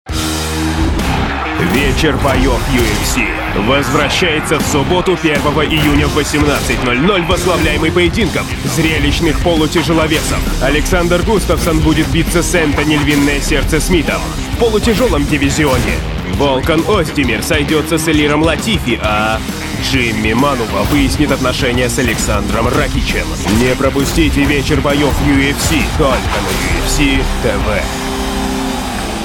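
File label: Russian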